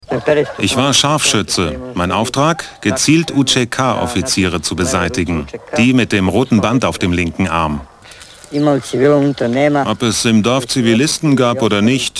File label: VoiceOver